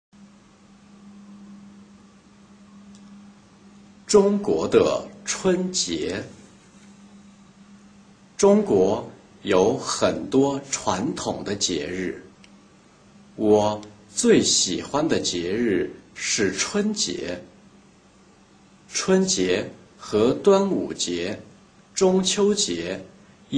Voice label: chinese reading; The Spring Festival/The Lunar New Year in China – 中国的春节; Learn Chinese in Chinatown